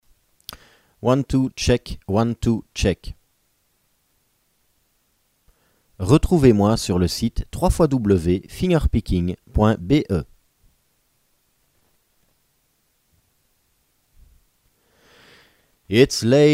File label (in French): Sennheiser 845s voix